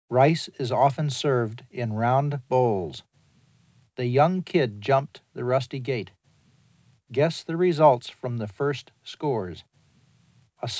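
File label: English, male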